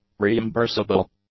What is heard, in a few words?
"reimbursable", English; adjectives